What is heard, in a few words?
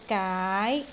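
"gaai2", Cantonese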